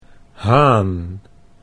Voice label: "haan", Dutch